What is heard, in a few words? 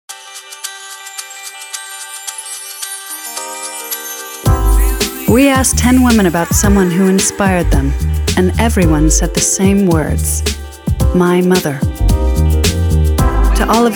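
us englisch, US English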